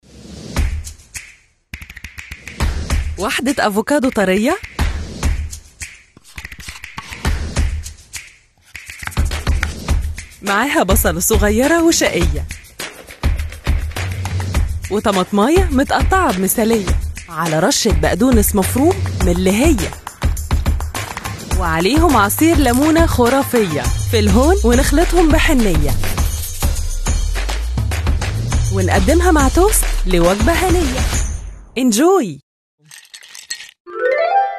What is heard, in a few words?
EG Commercial, Arabic; Recipes